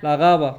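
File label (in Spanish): "la capa", Cuba, Havanna; la capa [laˈɡaba]; Kuba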